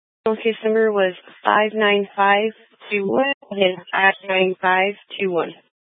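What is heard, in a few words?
Incident Tactical, scanner audio recording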